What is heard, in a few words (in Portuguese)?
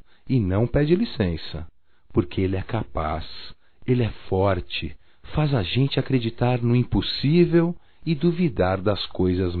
DESCOBRI O AMOR (M); Telemensagens 2017; Declaração de amor